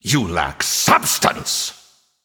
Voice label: Male Nightborne Attack

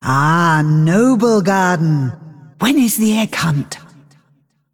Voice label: Female Dragon HAPPY NOBLEGARDEN

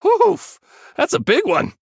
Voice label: Male Human Recruit Large Minion

